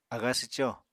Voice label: "aga swco", Apatani

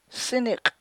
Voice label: "sinik'" nose, Chukchansi

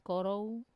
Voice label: "koro", snake, Wamesa

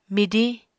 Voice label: "midiñ", Apatani

